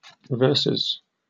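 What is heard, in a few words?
"versus", British English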